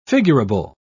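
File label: "figurable", English, British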